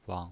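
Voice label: "wang", pinyin voice, neutral tone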